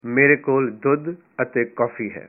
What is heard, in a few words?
I have milk and coffee, punjabi